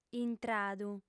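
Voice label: "intradu", female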